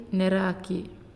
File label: "New", Naasioi